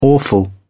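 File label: "awful", British English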